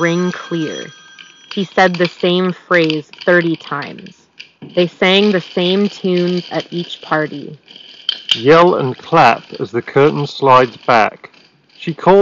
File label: Office microphone sample; Sennheiser Sport True Wireless